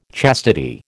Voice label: "chastity", American